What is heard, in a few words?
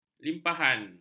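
"limpahan", Malay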